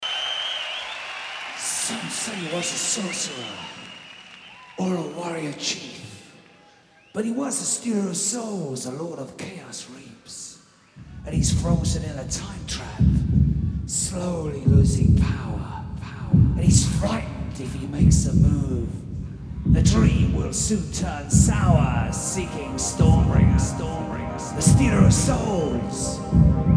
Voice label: narration